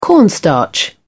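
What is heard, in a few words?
"cornstarch", British English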